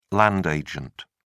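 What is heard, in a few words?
"land agent", British English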